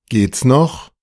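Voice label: "geht's noch", German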